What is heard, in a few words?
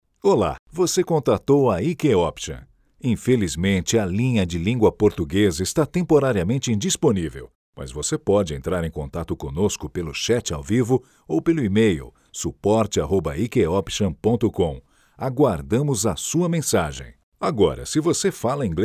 portuguese brazilian ivr